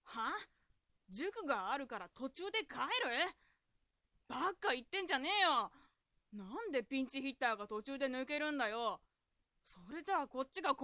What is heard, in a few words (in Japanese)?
dansei